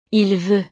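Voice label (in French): "il veut", French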